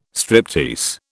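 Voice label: "striptease", British English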